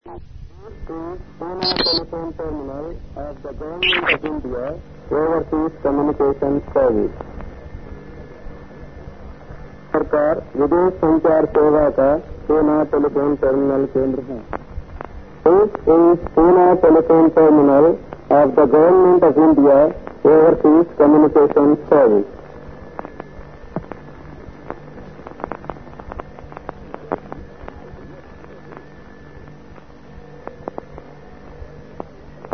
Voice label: ocs poona usb

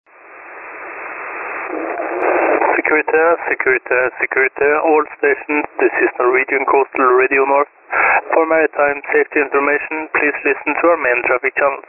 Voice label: Norwegian coastal radio north, ann for msi; kiwi arcticsdr

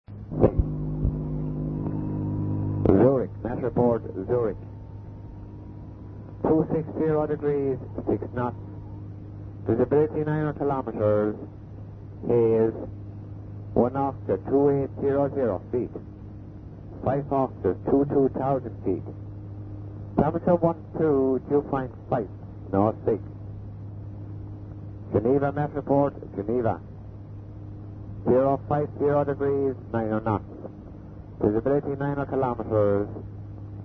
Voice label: shannon volmet

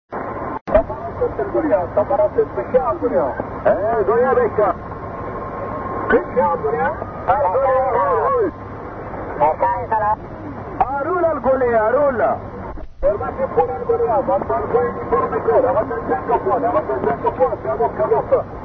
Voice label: meteo 9231 khz; el golea

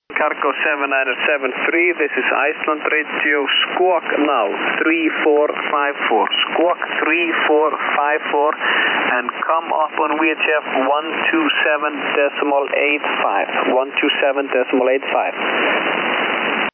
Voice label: iceland radio

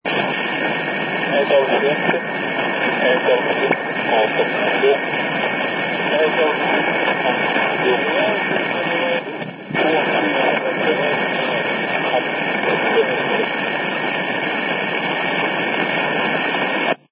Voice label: radio 2182 khz; aasiat

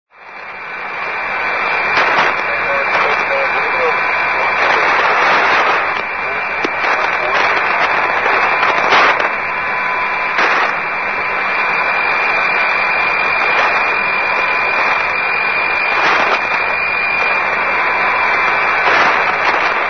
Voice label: coast guard radio usb; st.lawrence

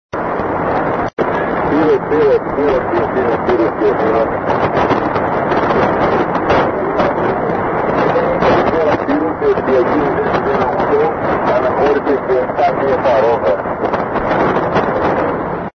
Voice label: syros aero athinai 5637 khz

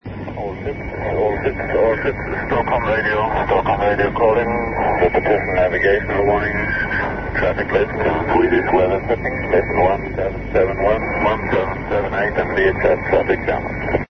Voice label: stockholm radio 2182 khz; europe

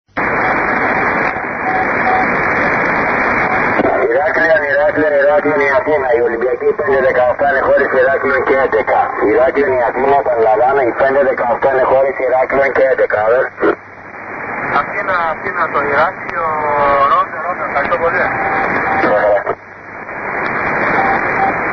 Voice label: iraklion aer 5637 khz

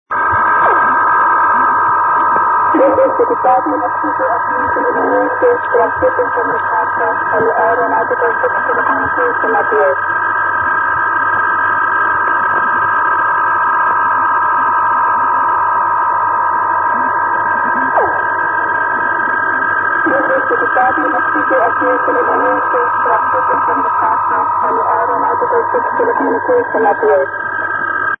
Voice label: caa djakarta fixed aero usb

